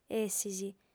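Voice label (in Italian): "essis", lingua sarda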